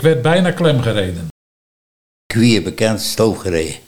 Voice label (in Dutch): "Ik werd bijna klem gereden - 'k wier bekant stoof gereje", Nieuw lekkerlands dialect